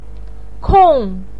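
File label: "kong" fourth tone, chinese, voice pinyin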